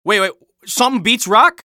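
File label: scout taunt rps lose, male voice; taunts; tf2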